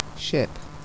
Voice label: "ship", Phonetics